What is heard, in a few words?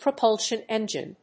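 "propulsion engine", English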